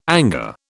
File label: "anger", British